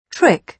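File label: "trick", British English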